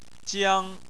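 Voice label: "jiang", Chinese, first tone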